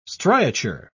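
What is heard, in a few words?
"striature", English, British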